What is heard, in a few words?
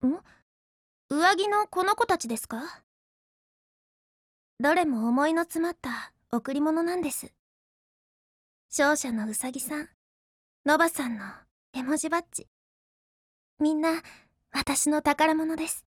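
dialogue Japanese; LS26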